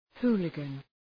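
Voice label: "hooligan", English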